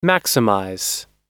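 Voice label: "maximize", American English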